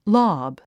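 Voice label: "lob", English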